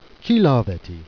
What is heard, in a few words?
"heuthe", Jèrriais